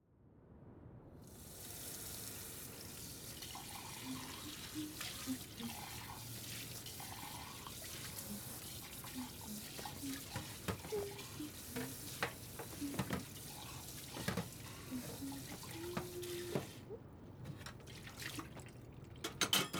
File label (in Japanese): ソファーで耳かき(右耳); 荷解きが終わってひと休み。